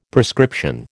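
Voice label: "prescription", American English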